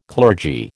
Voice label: "clergy", American